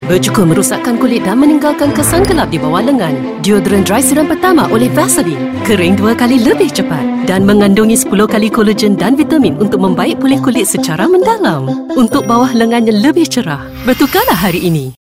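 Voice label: Malay, Commercial; GoLocalise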